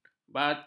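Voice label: "barter", Malay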